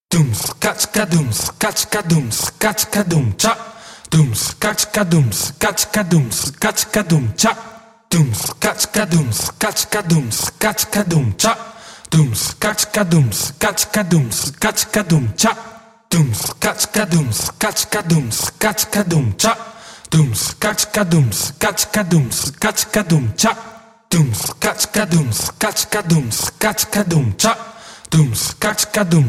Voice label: Vocal Three (fast), spoken